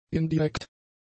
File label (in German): "indirekt", German; adjectives